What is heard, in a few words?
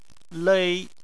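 "lei" first tone, Chinese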